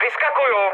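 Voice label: bailout, Czech, mood med; speech; War Thunder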